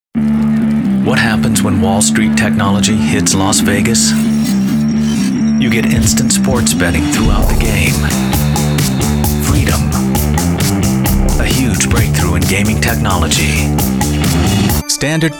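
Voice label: Commercial, voice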